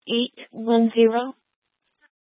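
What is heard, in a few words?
Tempe police department, scanner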